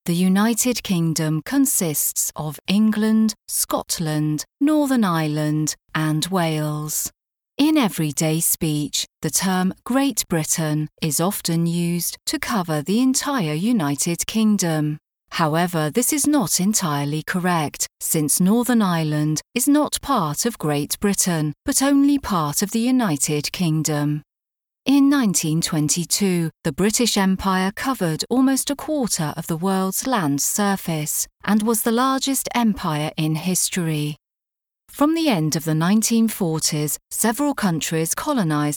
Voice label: UK